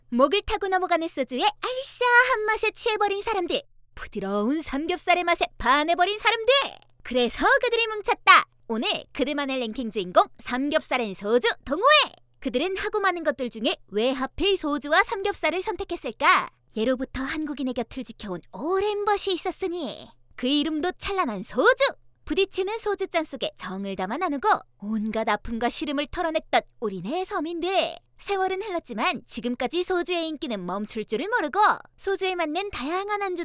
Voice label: 나레 통통 튀는

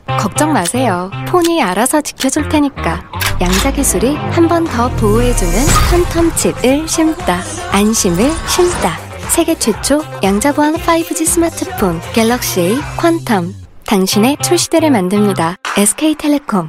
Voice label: 밝은 기분 좋은; 갤럭시

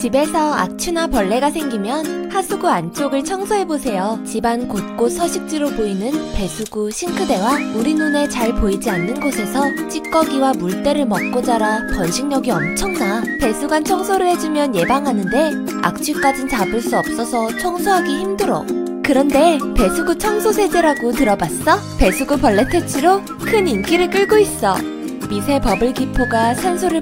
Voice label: 내추럴 귀여운; 오늘습관

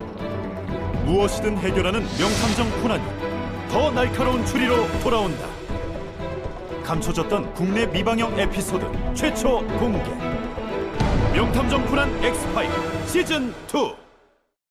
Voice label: 스팟 힘있고 진중한

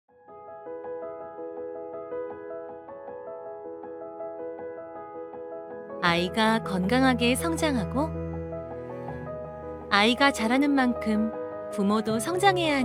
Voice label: 따뜻하고 친절한 톤

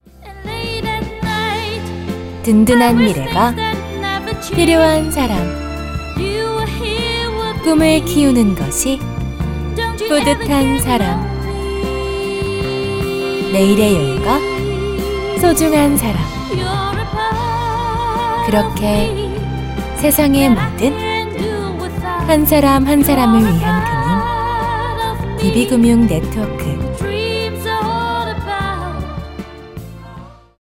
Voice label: 자연스럽고 따뜻한 톤; DB금융

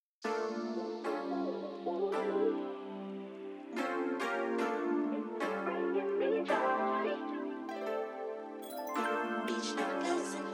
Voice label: 광고; 비스포크그랑데

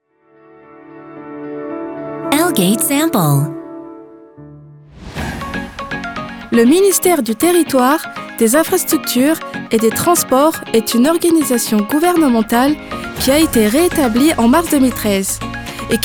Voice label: 프랑스 여 밝은 톤

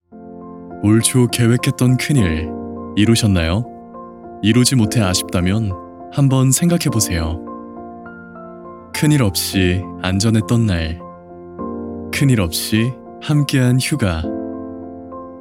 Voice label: 차분한 느낌의

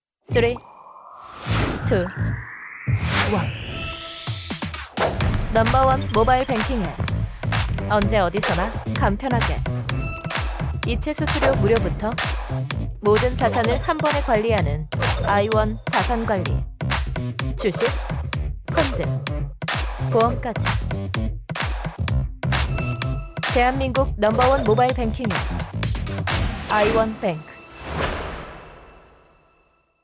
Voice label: 광고 CM 시크한 30대; IBK기업은행

